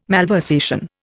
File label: "malversation", Indian